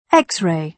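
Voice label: "x-ray", British English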